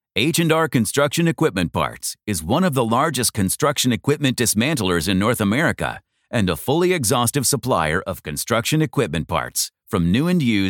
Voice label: English Voice Over